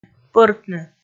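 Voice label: "пӧртны", Komi, female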